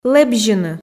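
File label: "лэбзьыны" Komi, female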